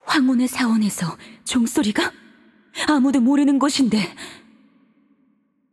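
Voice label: voice over, Korean; Game Outro; bloom minigame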